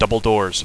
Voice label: double doors, radio bot; cstrike